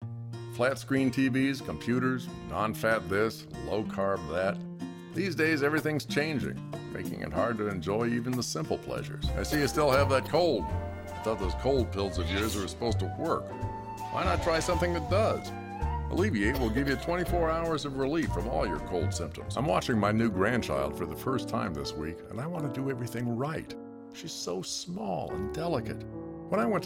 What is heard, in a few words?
Commercial